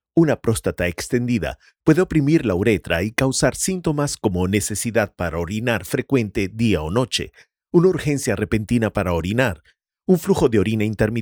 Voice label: Medical Procedure Explainer Video SP